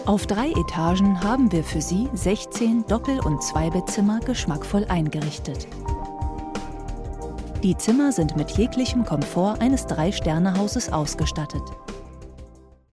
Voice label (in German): Imagefilm Hotel sanft